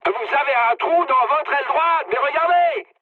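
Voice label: right wing, mood medium, French; War Thunder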